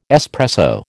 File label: "espresso", American